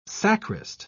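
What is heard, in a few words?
"sacrist", English, British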